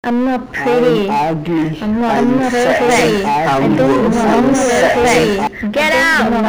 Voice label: Insulting voice